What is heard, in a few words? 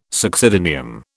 "succedaneum", British